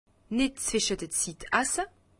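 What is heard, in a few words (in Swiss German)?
"zweschezitt", Alsatian; medecine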